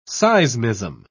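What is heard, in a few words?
"seismism", British English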